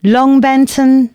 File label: announcements, female